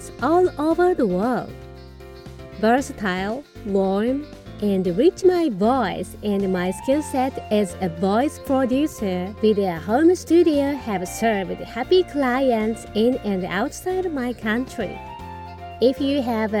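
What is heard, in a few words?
female, Japanese, accented English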